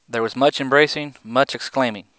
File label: male clean 16k